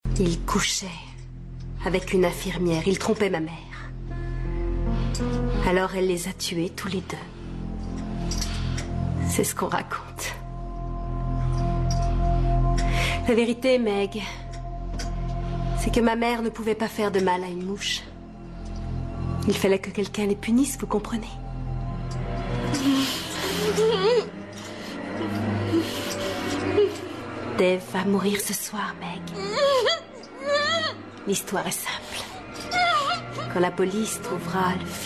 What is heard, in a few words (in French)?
seductrice, voix parlées doublage audio